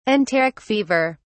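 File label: "enteric fever", British English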